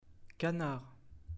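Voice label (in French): "Canard", French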